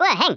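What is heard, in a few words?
"oops", Afrikaans accent; Speech; International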